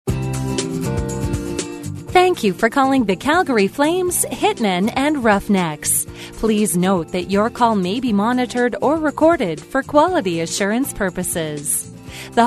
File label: On Hold